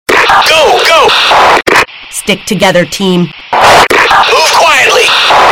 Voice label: radio

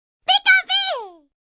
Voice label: voice cute; pikachu

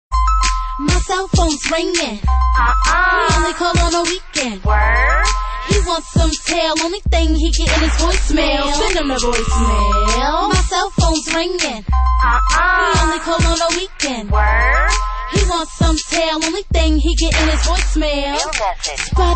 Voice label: voicemail; reggae

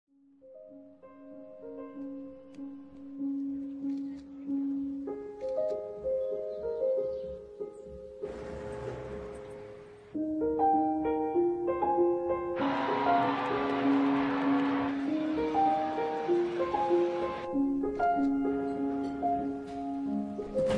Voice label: tv ad; iphone 5

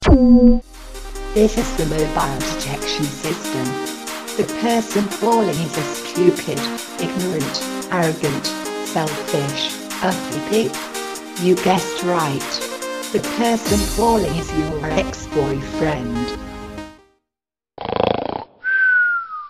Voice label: silly person calling; ringtones

